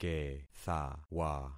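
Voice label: "kethawa", concatenated syllables; Affixing experiment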